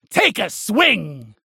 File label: Halloween Slap Ghost Spacerig